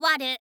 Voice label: "waru", info girl voice

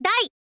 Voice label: "dai", girl; voice

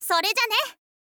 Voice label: "sorezyane", girl

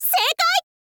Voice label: "seikai", info girl voice

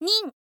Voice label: "nin", girl; voice; info girl

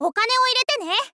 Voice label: girl; voice; info girl

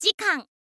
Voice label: "zikan", info girl

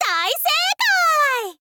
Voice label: info girl; daiseikai; voice

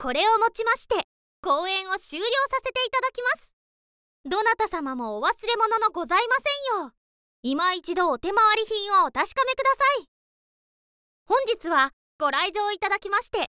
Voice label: korewomochimashite, girl; voice

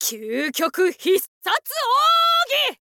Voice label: thief boy special

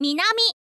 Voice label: "minami", girl; voice

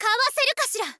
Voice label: swordwoman attack

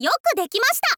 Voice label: "yoku dekimashita", info girl

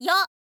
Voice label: "yo", info girl

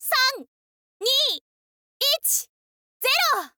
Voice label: countdown, girl

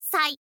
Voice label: "sai", info girl voice

